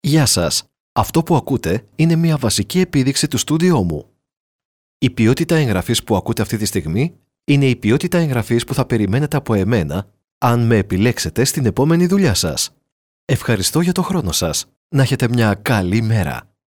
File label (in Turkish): Yunanca Erkek, seslendirme; erkek sesler